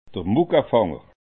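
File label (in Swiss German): "muckefanger", Alsatian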